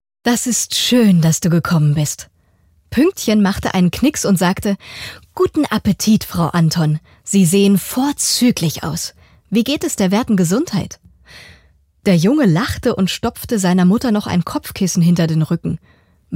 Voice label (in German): Kinder Hoerbuch